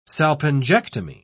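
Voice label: "salpingectomy", British English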